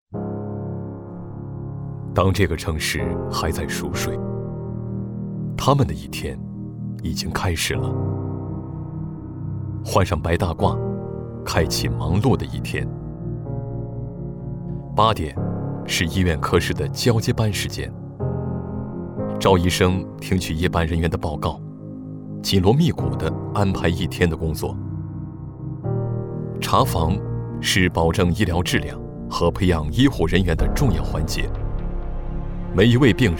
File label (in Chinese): 医生讲述走心旁白